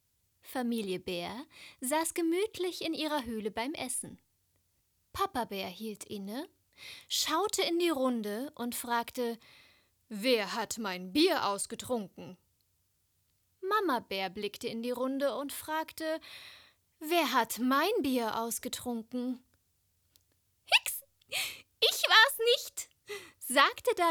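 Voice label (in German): Bärenfamilie Erzählung Kinder; Muttersprache Deutsch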